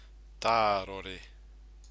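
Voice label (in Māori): "tarore", Māori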